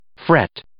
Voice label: "fret", English